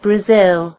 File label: "brazil", en GB; gcompris